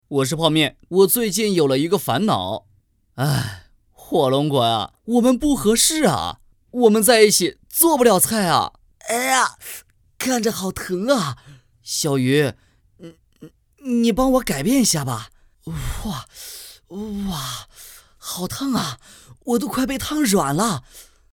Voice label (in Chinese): 素人音男; 抖音